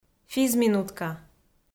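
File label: "физминутка", Komi, female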